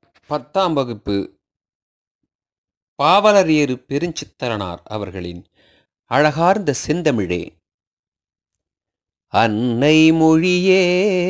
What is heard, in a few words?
tamil memory poem; namma kalvi